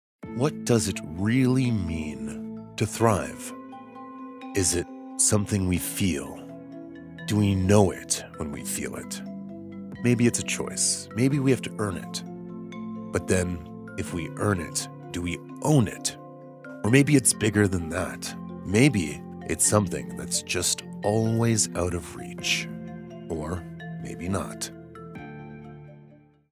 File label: Deep; Thrive